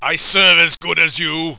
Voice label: "i serve as good as you", heroic; tactics; attack